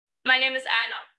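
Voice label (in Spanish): Voice Acting es F, Spanish, female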